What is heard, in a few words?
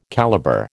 "calibre", American